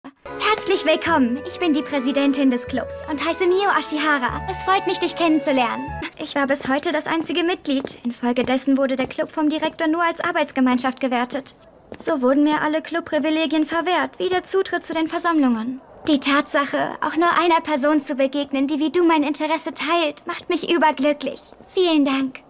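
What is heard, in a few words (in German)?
Synchron jugendlich glücklich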